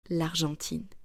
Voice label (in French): "L'Argentine", French